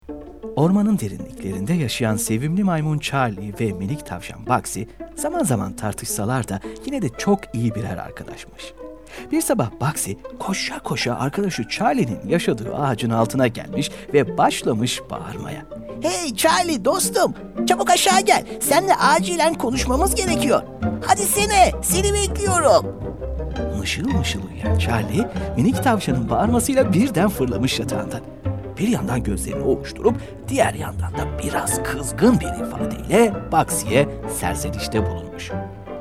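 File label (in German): Hörbuch für Kinder türkisch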